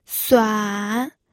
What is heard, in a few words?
"suan3", pinyin